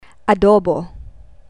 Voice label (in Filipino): "adobo", Tagalog